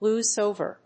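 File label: "lose over", English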